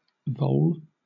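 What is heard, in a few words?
"vole", British English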